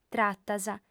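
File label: "tratas", Sardinian, female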